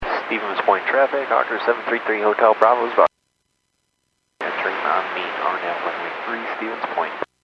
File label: Airports 122.7, Radio; KSTE